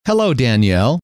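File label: "hello", male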